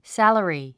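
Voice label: "salary", English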